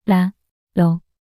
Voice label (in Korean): "라러" 낱소리 여자